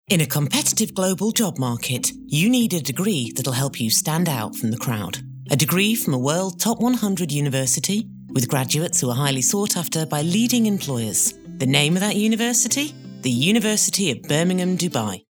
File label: e-learning UAE commercial